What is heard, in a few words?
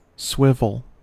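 "swivel", American English; pronunciation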